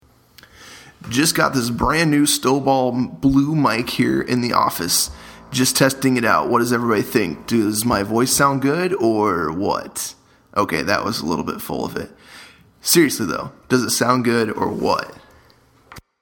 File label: mic test